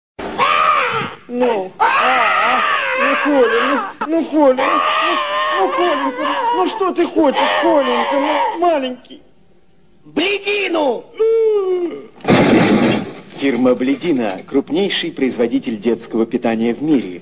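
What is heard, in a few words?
advert of the 90s; bledina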